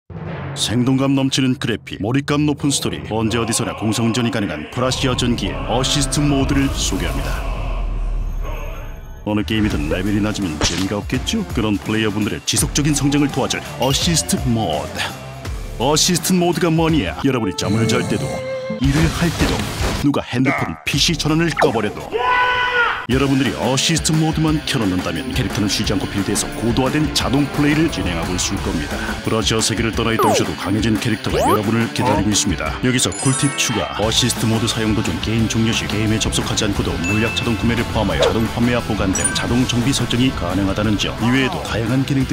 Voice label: 낮은 톤; 넥슨프라시아전기

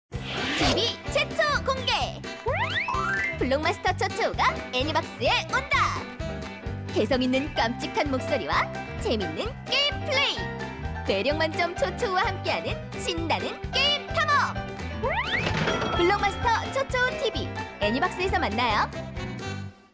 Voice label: 스팟 에너지 있고 활기찬 20대; 블록마스터; 쵸쵸우TV

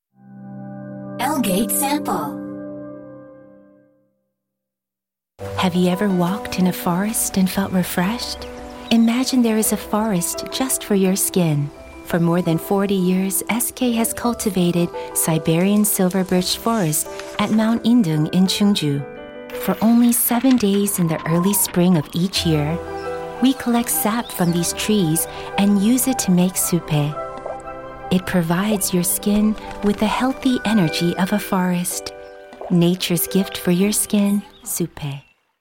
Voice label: 영어 여 차분한 톤; SK수페

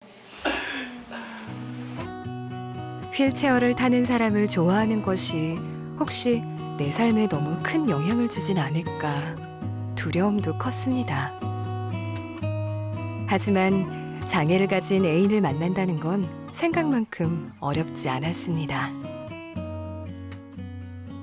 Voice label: 따뜻하고 감성적인 30대

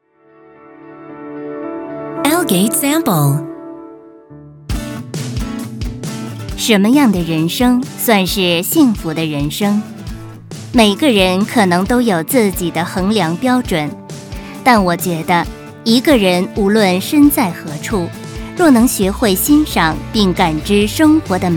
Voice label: 중국 여 기본톤

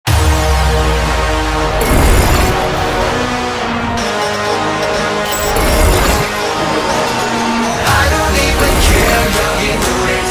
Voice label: 영어남 마이크치 밝은톤; Beyond LIVE A new era of live concert begins

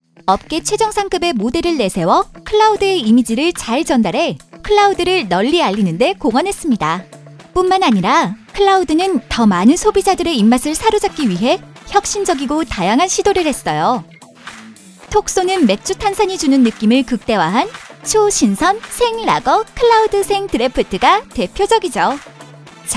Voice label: 여 사내 광고 내레이션; 롯데칠성; 클라우드

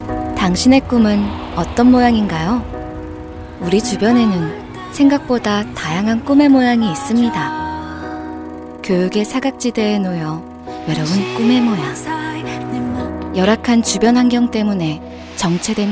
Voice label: 부드러운 분위기의 내레이션

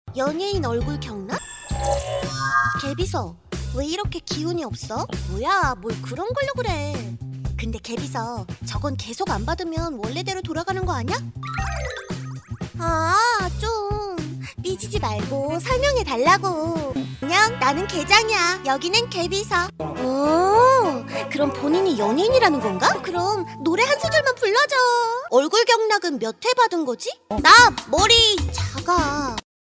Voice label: 연기 캐릭터 귀엽고 자연스러운 10대 여아

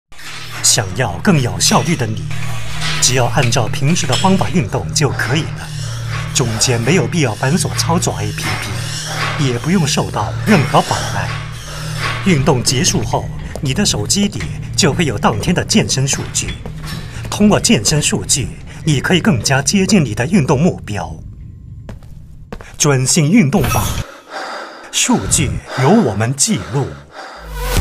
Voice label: 중국 남 강한톤; 오몰래-FITNDATA-피트니스데이터플랫폼

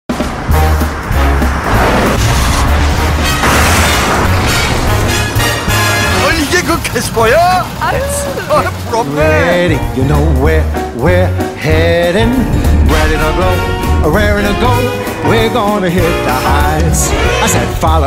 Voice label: 설레는 감각적인; 현대 캐스퍼

